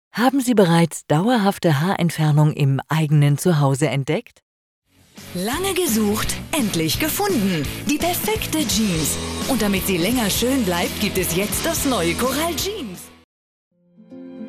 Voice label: german commercial